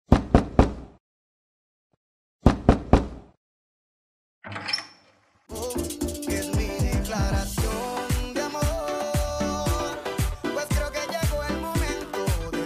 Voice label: radio drama